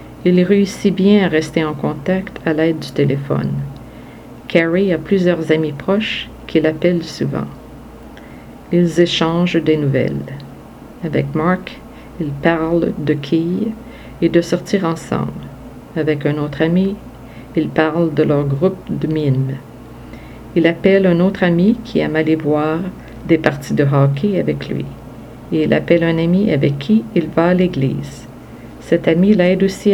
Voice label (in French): Parler au telephone